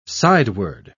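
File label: "sideward", British English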